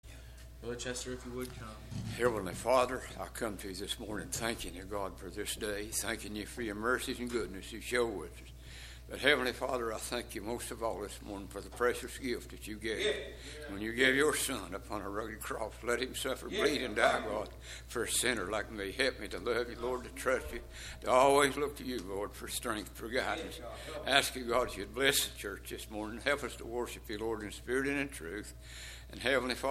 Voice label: Broadcast Prayer